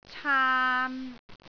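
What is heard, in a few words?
"caan3", Cantonese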